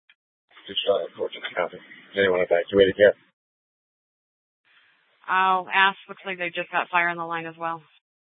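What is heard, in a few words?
police dispatch, scanner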